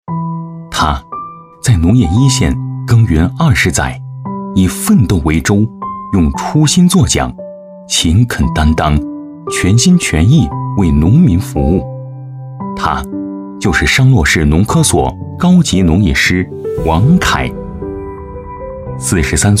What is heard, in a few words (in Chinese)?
男 专题 人物介绍